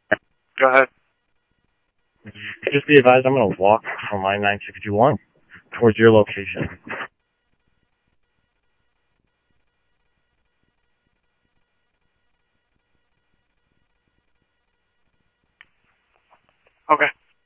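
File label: Lakes Sheriff Lake Patrol, scanner audio trunk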